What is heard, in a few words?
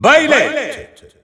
French Announcer; Byleth; SSBU